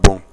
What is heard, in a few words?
"bɔn", Bambara; actions